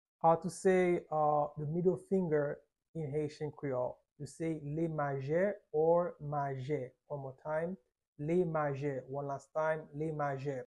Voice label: "Lemaje" Haitian Creole pronunciation by a private Haitian tutor; How to say Middle Finger in Haitian Creole